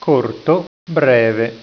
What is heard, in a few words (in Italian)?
"corto", italiaans